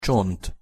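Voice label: "csont", Hungarian pronunciation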